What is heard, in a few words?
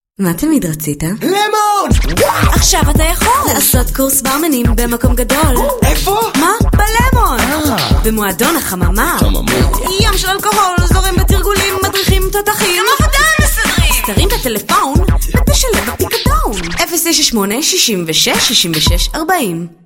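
Hebrew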